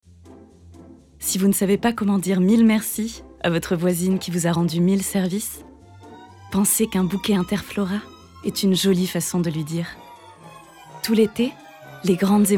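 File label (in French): E-LEARNING, comédienne; voix off; Lessive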